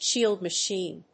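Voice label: "shield machine", English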